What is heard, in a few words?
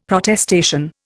"Protestation", Indian; Dictionary